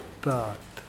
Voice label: awake, Mokilese

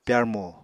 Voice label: "pyarmo", Apatani

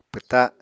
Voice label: "pwta7", Apatani

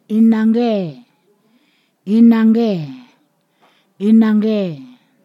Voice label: "sliced", Ponosakan

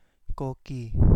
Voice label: Kapingamarangi; Pacific lorikeet

